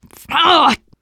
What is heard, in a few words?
reaction negative special, teen girl; player; survivor; voice